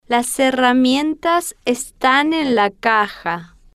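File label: las herramientas estan en la caja, Spanish, female, slow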